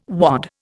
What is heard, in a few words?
"wad", Indian